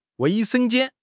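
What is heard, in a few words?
"wei4 sheng1 jian1", Chinese; HSK4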